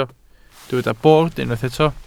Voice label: "bord", Welsh